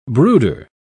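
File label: "brooder", British English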